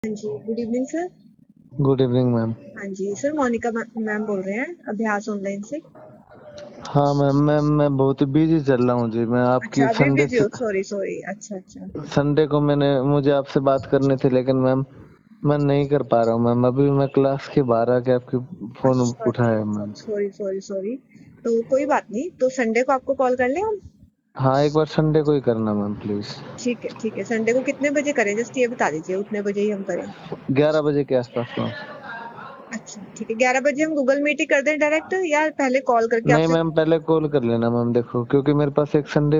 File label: call recording